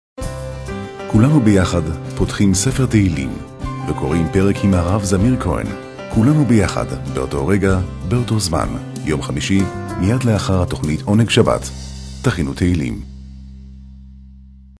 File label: hebrew promos